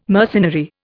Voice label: "mercenary", Indian

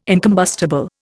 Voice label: "incombustible", Indian

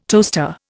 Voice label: "toaster", Indian

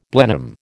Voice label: "Blenheim", American

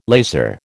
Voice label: "lazar", American

